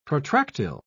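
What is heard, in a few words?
"protractile", British English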